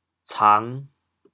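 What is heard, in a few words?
"cang5", Teochew, chaoyang; 田鳝; 黄鳝; 潮阳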